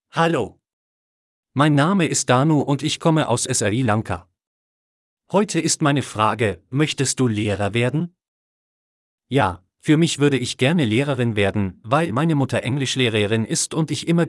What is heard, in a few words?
Audiobook Voice